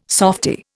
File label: "softie", Indian